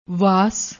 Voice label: "wass", Punjabi; ਵਾਸ